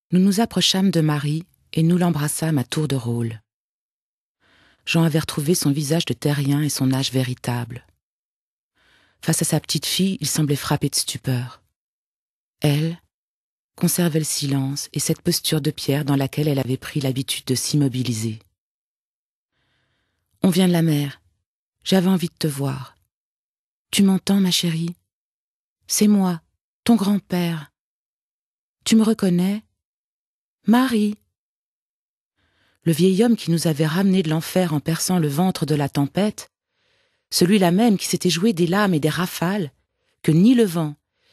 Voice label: prosa franzoesisch

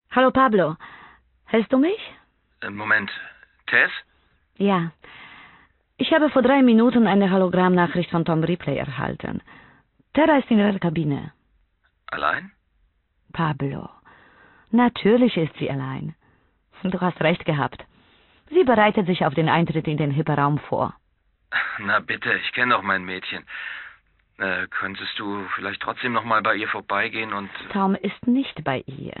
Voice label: deutsch hoerspiel, frauen aus aller welt

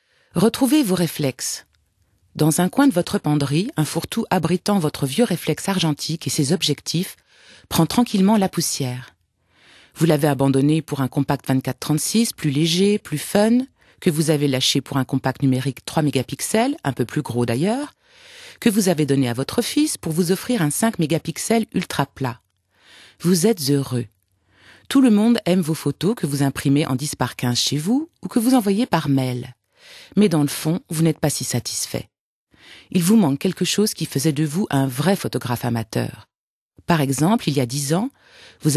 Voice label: technik franzoesisch

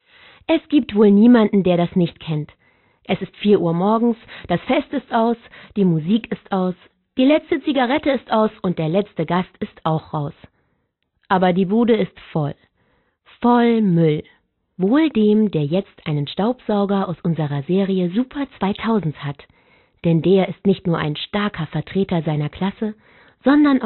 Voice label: Werbung Muell, frauen

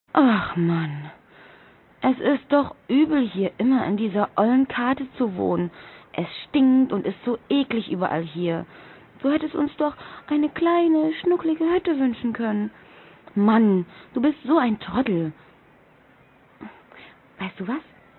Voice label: frau

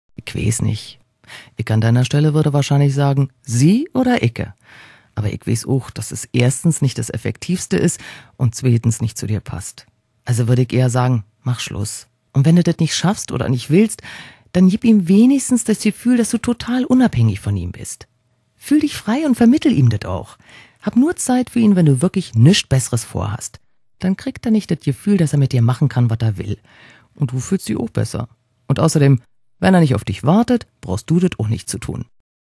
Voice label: hoerspiel, frauen

